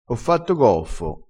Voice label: "goffo", crespinese

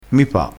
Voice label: "mi", crespinese

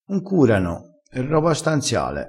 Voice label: "cura", crespinese

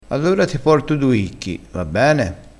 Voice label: "chicco", crespinese

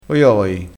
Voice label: "oioi", crespinese

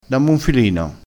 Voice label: "filino", crespinese

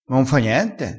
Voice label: "m importa", dialettale crespinese

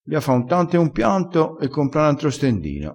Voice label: "fa un tanto", crespinese

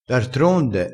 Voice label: "d artronde", vocabolario dialettale

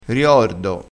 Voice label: "riordo", crespinese

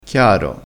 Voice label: "chiaro", crespinese

